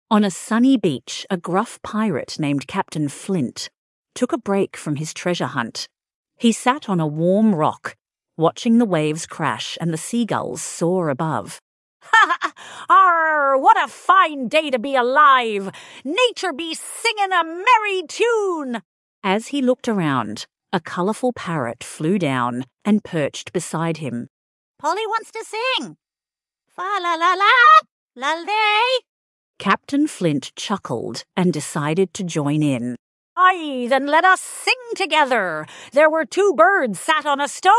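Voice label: female Australian story, classic rhymes; There Were Two Birds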